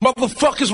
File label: "motherfuckers", expletives